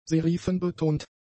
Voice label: "serifenbetont", German; adjectives

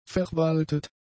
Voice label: "verwaltet", German; adjectives

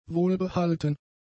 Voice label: "wohlbehalten", German; adjectives

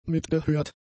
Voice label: "mitgehört", German; adjectives

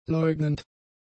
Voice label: "leugnend", German; adjectives

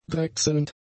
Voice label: "drechselnd", German; adjectives

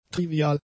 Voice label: "trivial", German; adjectives

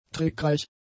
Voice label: "trickreich", German; adjectives

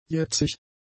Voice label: "jetzig", german; adjectives

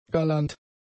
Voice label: "galant", German; adjectives

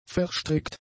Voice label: "verstrickt", German; adjectives

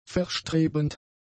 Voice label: "verstrebend", German; adjectives

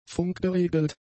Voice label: "funkgeregelt", German; adjectives

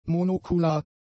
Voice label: "monokular", German; adjectives

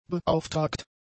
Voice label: "beauftragt", German; adjectives

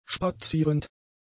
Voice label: "spazierend", german; adjectives

